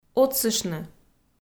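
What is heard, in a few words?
"отсыштны", Komi, female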